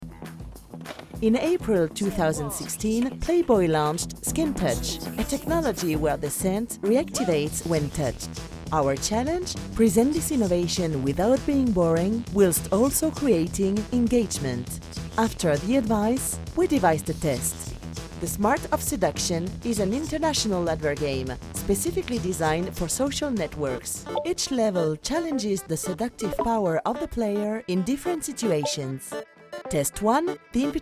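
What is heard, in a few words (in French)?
ANGLAIS extrait Case Study Dynamique naturelle; PLAYBOY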